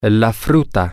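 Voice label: the fruit, Spanish, male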